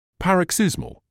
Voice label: "paroxysmal", English

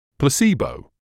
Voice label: "placebo", English

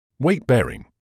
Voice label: "weight-bearing", medical English

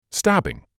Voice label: "stabbing", English

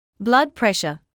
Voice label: "blood pressure", medical english; blʌdˈprɛʃə/ blud-PRESH-uh

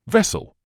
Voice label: "vessel", medical English

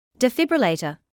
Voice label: "defibrillator", English

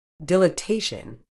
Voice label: "dilatation", uk

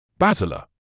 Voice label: "basilar", medical english uk; BAZ-i-luh; ˈbæz.ɪ.lə

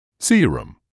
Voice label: "serum", English

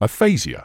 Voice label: "aphasia", medical english uk; uh-FAY-zheer; əˈfeɪ.ʒɪə

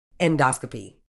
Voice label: "endoscopy", uk

